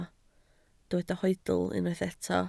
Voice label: "hoedl", Welsh